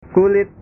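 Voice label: "kulit", Indonesian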